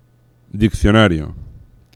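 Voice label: "diccionario", Spanish; laboratori fonetica; paraules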